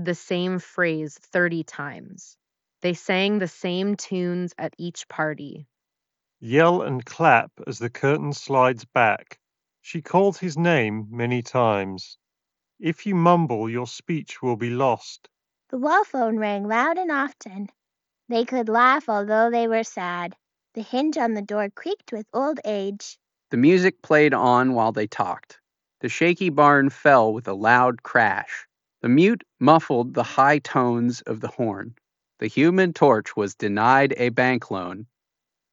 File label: MIC ideal; turtle beach; STEALTH 600